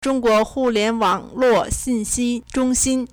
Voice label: "zhong1 guo2 hu4 lian2 wang3 luo4 zhong1 xin1", Chinese